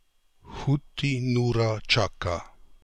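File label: "Khooti Noora Chaka", pronunciations; Fourth Way; Beelzebub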